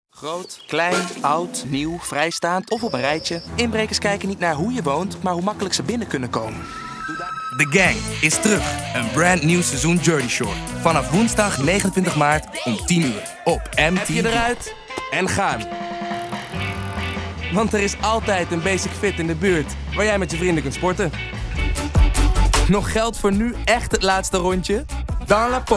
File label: Corporate